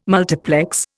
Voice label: "multiplex", Indian